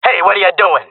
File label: taking fire friendly, jungle male; player vo; Counter Strike Model Pack; CS:GO